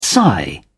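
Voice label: "sigh", British English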